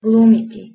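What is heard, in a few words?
"glumiti" Bosnian, female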